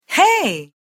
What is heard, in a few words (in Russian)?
zvuk hey jenskii